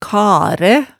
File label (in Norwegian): "karæ", hemsedalsmaalet tale